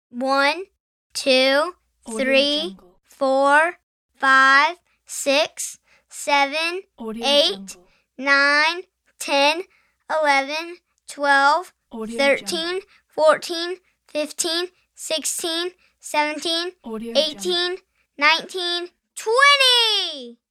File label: young boy counting to twenty